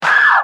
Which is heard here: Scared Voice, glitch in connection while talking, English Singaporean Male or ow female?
ow female